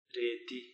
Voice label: "reeti", Palula